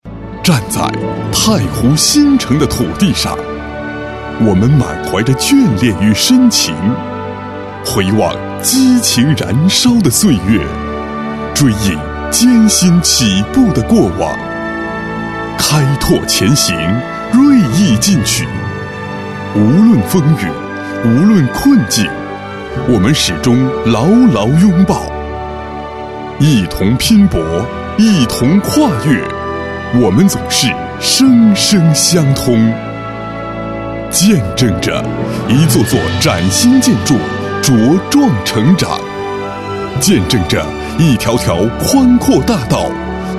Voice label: boy fangchan, Chinese